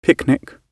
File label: "picnic", English